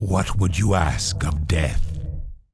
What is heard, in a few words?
Undead Male Warrior NPC Greeting